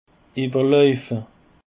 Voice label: "ewerlauefe", Alsace

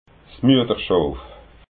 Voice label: "mueterschoof", alsace

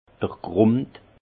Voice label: "grund", Alsace; vin; terre

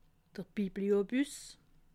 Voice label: "bibliobus", alsace

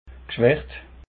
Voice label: "gschwaecht", alsace

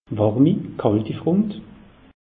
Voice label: "warmi", alsace; meteo

